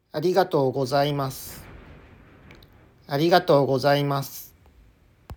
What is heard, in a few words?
Arigato Gozaimasu Pronunciation